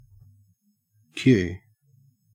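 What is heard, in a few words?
"queue", Australian English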